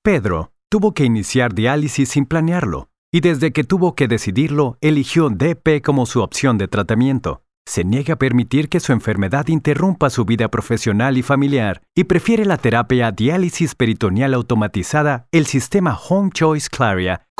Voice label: narration sample